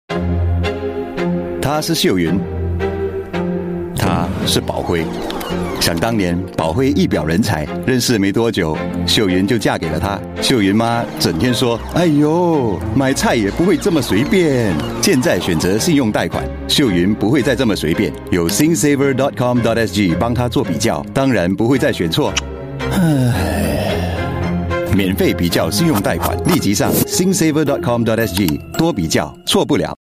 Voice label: Mandarin, Male; China; Singsaver

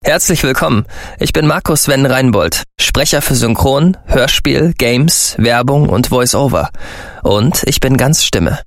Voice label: German Conversational

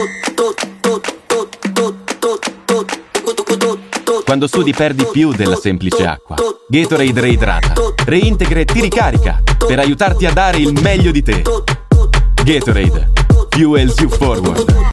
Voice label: Italian; Gatorade X AC MILAN

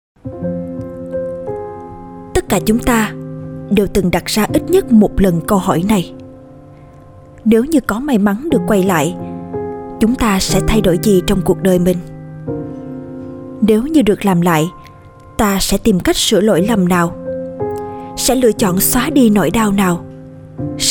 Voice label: South Southern Female Female, Vietnamese

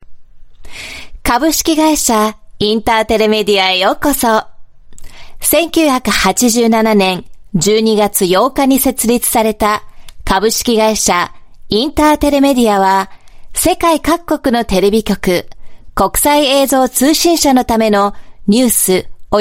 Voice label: Japanese Female Corporate

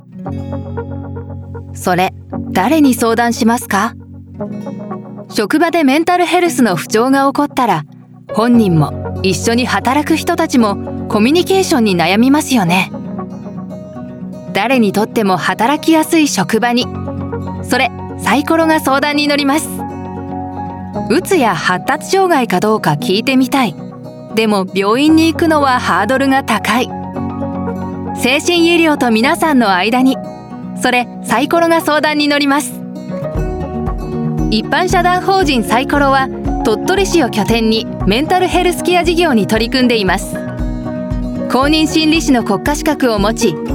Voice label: Japanese Female; Psychoro

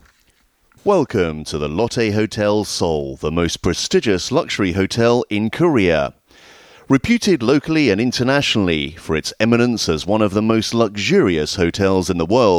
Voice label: UK English; Lotte Hotel Seoul

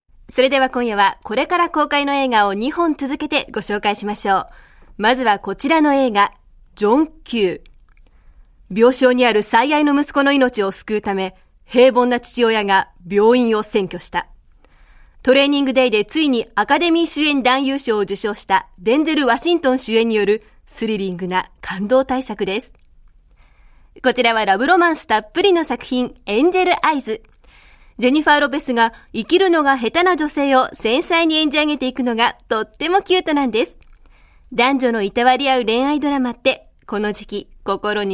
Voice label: Japanese, Japanese Female

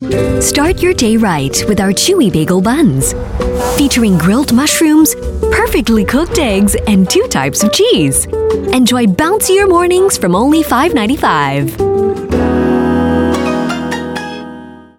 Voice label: Asian English Breakfast Bagel Food Romance; McDonalds

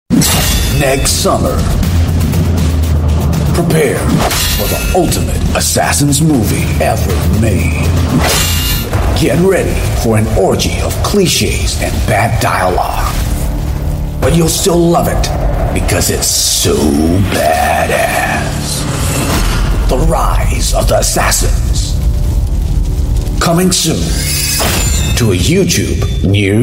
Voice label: Asian English Movie Trailer Voice

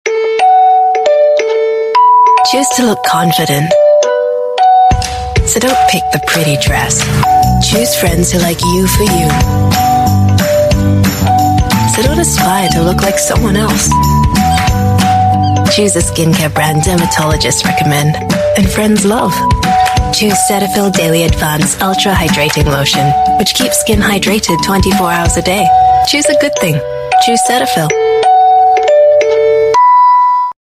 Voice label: Asian English; Hydrated

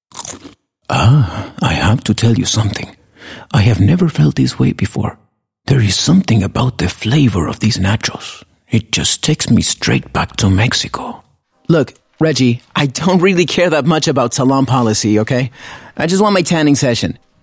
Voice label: English Spanish Characters

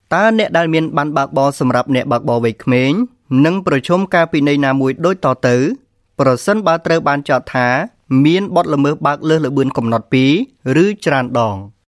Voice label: Khmer Male E-Book

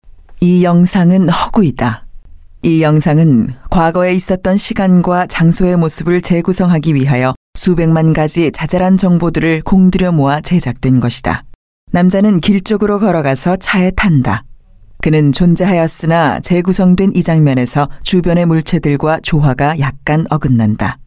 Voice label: Korean

